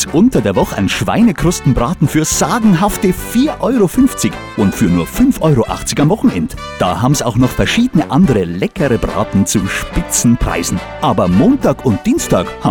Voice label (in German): Spot Gasthof; Gasthof Hetten